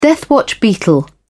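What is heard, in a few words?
"deathwatch beetle", British English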